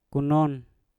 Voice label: "kunon", Mpur; pendek